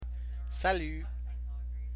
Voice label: greeting, french